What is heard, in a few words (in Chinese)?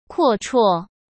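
"阔绰", Mandarin Chinese; chinese-flashcards; hsk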